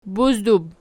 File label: "buzdub", Archi